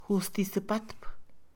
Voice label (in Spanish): juzgar, Mixe, Mujer; INALI